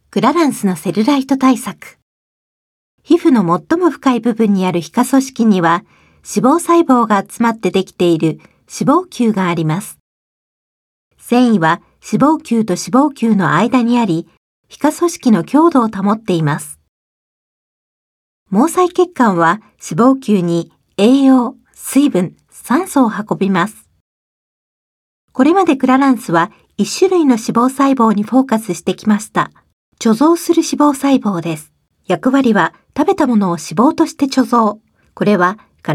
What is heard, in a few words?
Japanese Corporate, Female; Clarins